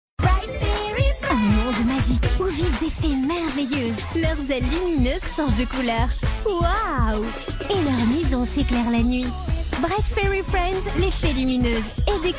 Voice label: Publicite TV Voix Off feminine; Bright Fairy Friends

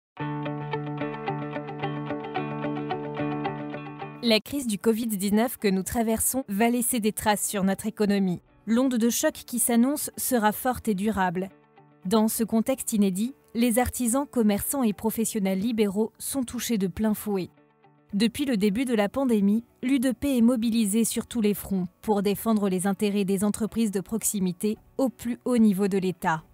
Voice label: Emission Expression Directe Voix Off femme; France TV; Expression Directe U2P

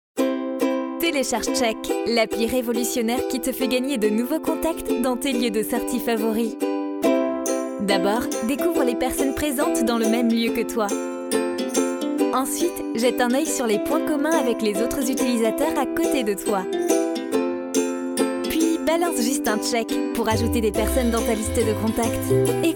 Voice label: Publicite, Voix jeune, souriante; Check; Check est une application sortie sur Ipad / Iphone. Une voix jeune et souriant

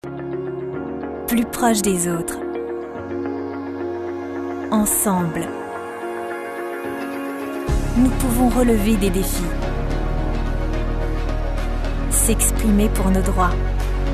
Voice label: Institutionnel, Voix convaincante, chaleureu; Voix convaincante, chaleureuse; Journée internationale des droits; Unicef

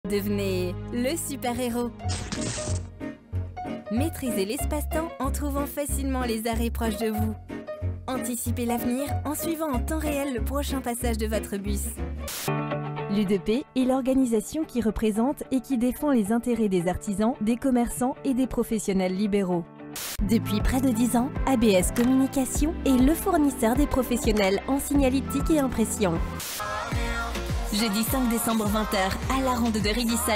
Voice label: MEDLEY BEST OF VOIX OFF; Medley, mixte – Corporate, publicités TV, reportage, voice over, jingle

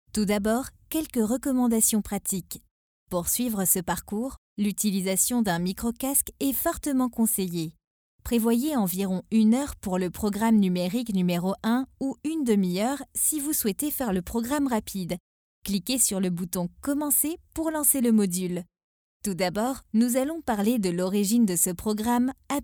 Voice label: E-learning Formation informatique; E-learning – Logiciel informatique – Voix sérieuse, posée, explicative

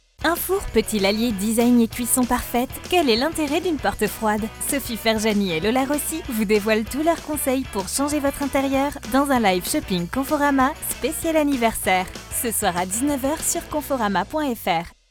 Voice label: Publicite web Voix Off femme; Conforama

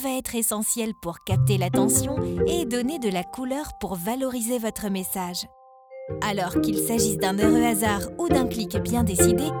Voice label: Voix Off Femme voix off feminine

